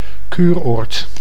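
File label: "kuuroord", Dutch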